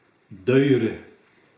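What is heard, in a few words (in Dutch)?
"Dujert" Dutch dialect; Duurt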